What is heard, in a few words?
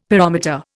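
"pyrometer", Indian English; Dictionary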